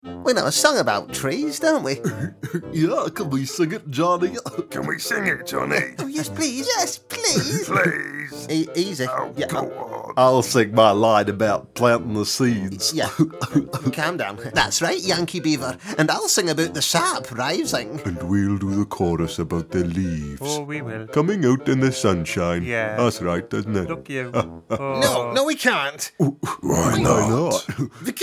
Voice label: cartoon

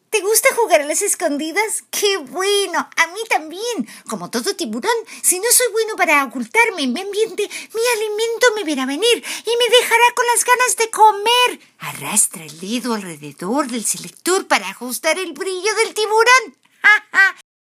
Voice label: Spanish character

